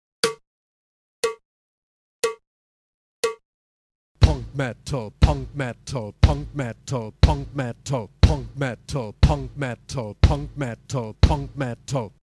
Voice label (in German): Sprechuebung Triole